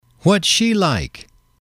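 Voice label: what is she like, English, male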